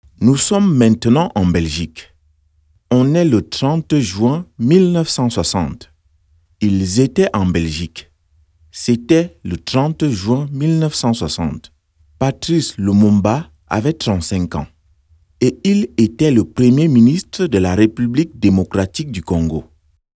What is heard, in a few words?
French VO 41 years old